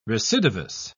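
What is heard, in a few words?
"recidivous", British English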